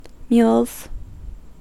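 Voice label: "mules", US English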